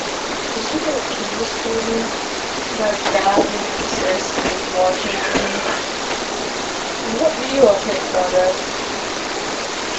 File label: Daughters Bedroom Unexplained Low whisper (Yes) AMPLIFIED